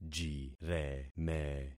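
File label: "jireme", concatenated syllables; Affixing experiment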